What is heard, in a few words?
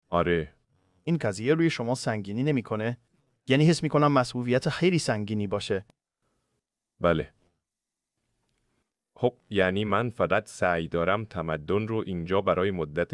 persian; Subformer